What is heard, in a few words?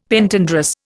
"Pentandrous", Indian; Dictionary